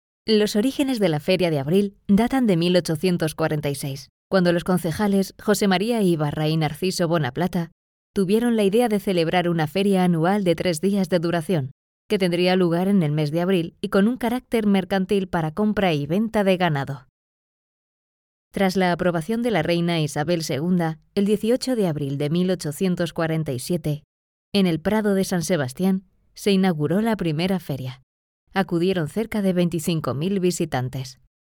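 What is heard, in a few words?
Spanish Spain female narrative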